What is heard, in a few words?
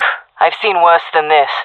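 round losing, jungle female; vo; agents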